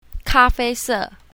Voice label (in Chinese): "ka fei se", Mandarin